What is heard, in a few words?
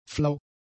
"flau", German; adjectives